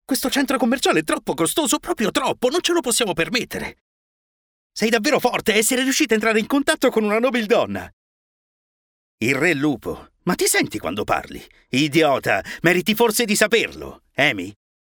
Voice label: locutor italiano italian voiceover